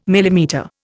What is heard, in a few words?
"millimetre", Indian